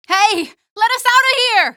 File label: let us out, female; Half-Life 2; streetwar; nexus